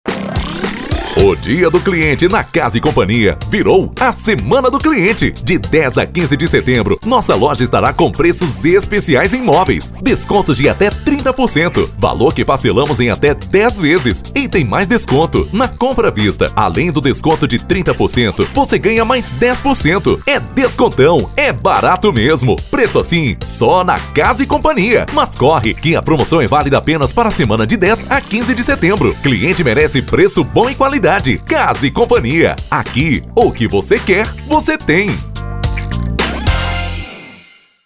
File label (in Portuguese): Spot Simples